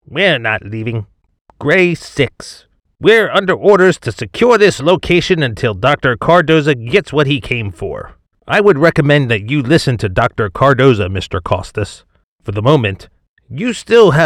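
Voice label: Military Solider Enforcer Tenor General American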